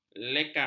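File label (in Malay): "lekang", Malay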